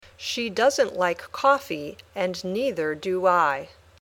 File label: Dictation